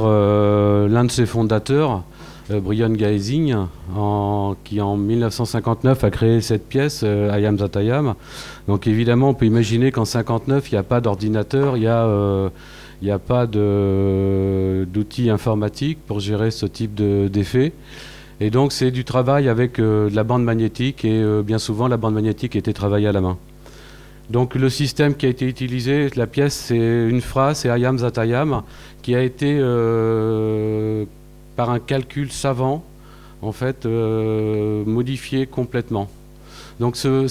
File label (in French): Ecoute de poesie sonore